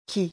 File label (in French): "qui", French